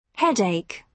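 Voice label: "headache", British English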